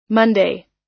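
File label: "monday", US English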